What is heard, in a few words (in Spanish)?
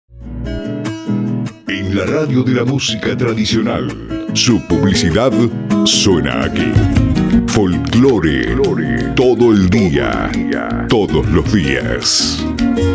Folkore pack, Estilo Folklore, Voz masculina; Para radios y programas